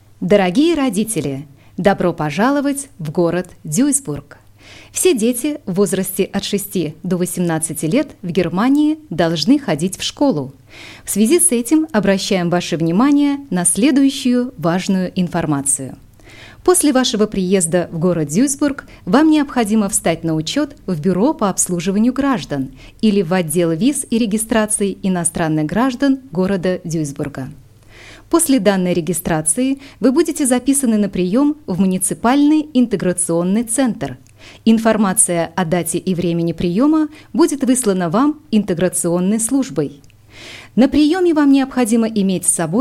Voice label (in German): Sprachausgabe Russisch; Seiteneinstieg